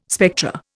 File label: "spectra", Indian